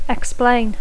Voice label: "explain", English; Dacco